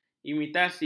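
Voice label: "imitasi", Malay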